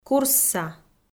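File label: "курсса", Komi, female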